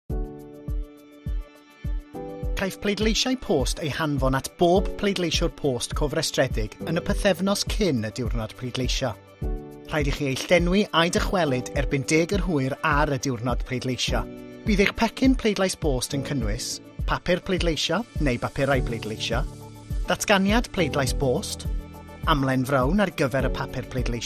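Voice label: Welsh general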